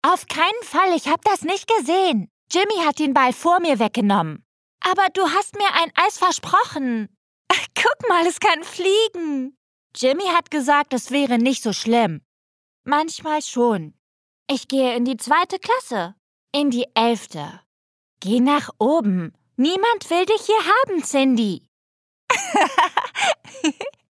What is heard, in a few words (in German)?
Synchron